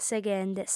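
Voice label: "seghende", lingua sarda, female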